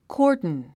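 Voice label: "cordon", English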